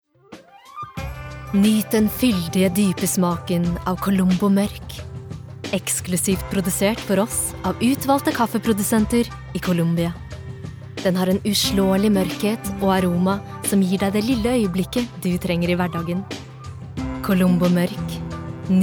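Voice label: Norwegian soft sell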